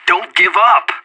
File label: pep talk; Counter-Strike Global Offensive